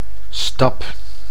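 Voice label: "stap", Dutch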